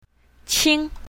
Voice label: "qing1", Mandarin; colors

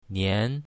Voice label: "nian2", chinese, mandarin

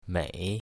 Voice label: "mei3", Mandarin

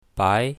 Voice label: "bai2", Mandarin; drinks

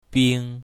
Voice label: "bing1", Mandarin; drinks

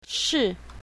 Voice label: "shi4", pinyin, Mandarin

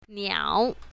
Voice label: "niao3", Mandarin; animals

